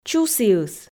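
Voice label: "чусіыс", Komi, female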